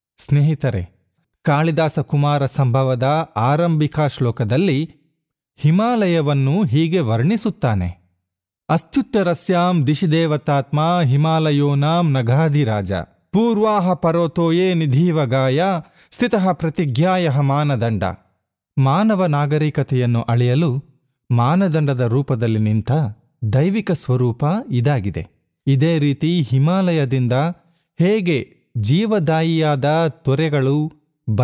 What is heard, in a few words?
Male VO Sample Kannada Spiritual